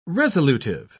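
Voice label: "resolutive", British English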